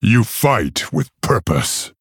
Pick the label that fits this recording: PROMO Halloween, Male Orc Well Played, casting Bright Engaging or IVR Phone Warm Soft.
Male Orc Well Played